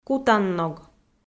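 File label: "кутанног", Komi, female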